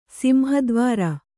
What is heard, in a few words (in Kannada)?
"ಸಿಂಹದ್ವಾರ", Kannada